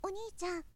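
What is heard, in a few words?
boy, "onichan"; shonen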